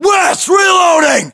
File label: inform reloading, battlechatter us; localized english